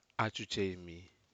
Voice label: Aneityum come east